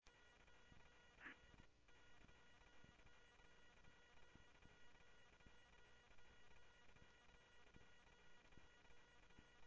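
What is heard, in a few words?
Sheriff Lake Patrol, scanner audio trunk; MCSO Lakes 1 SheriffLakePatro; ThompsonPeakScot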